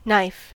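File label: "knife", US English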